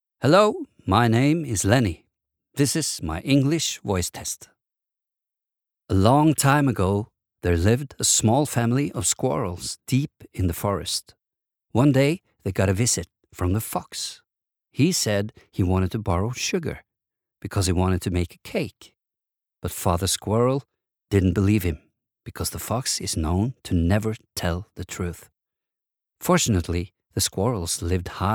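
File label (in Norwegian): Norwegian engelsk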